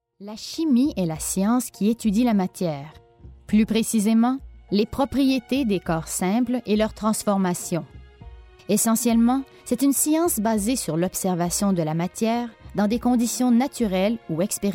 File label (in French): Narration